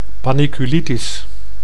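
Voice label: "panniculitis", Dutch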